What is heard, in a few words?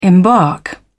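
"embark", British English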